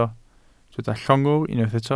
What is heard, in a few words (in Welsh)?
"llongwr", Welsh